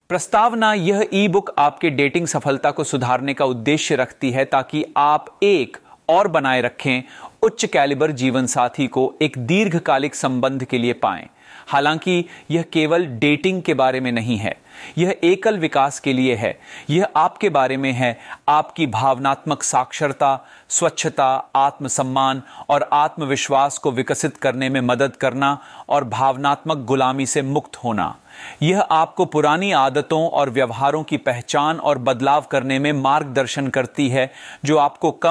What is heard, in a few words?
Hindi